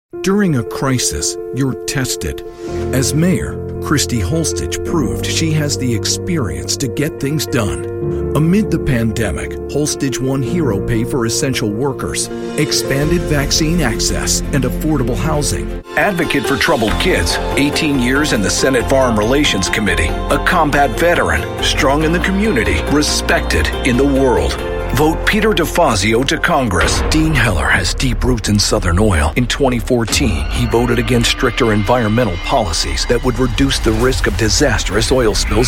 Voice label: VO Political